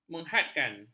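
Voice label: "mengehadkan", Malay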